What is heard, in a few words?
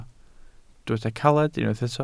"caled", Welsh